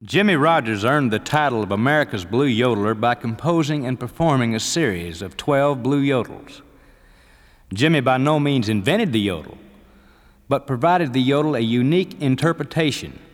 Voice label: narration